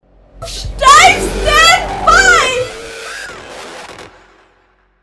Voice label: standby female